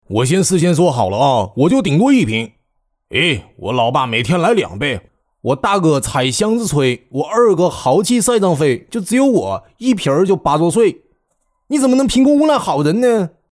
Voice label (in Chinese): 动画 角色 东北话角色, 男